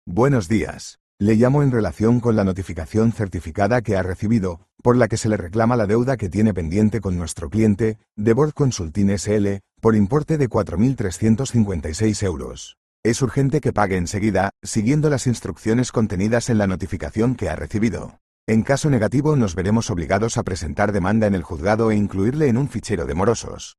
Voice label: audio call